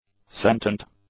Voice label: "sentient", English; adjectives